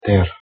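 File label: "derH", Proto-Indo-European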